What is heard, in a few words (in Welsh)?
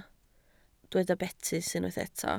"betys", Welsh